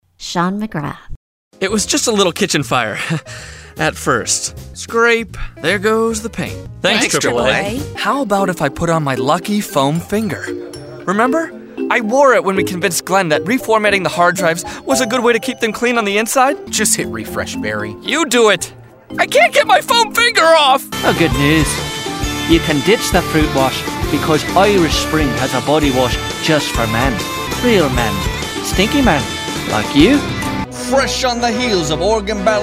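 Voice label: Voice Over Demo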